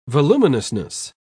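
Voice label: "voluminousness", British English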